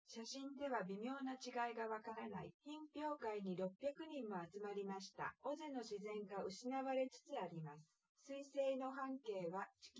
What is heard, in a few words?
test female synthconv 130ms 1m sim; SiSEC10; underdetermined